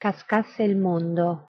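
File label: pronunciation it cascasse il mondo, Italian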